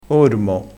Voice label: "ormo", dialettale; vocabolario dialettale

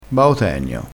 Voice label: "bao tenio", vocabolario dialettale crespinese

